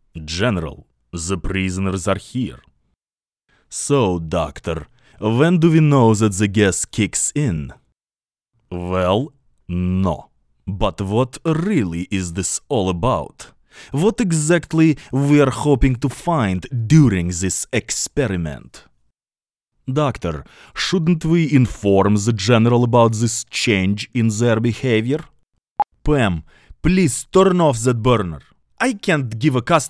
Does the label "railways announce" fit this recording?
No